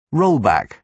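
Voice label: "rollback", British English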